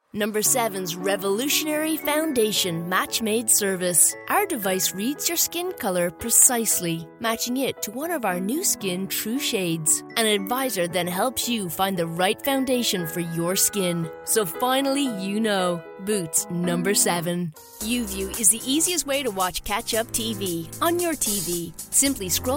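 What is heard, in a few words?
commercial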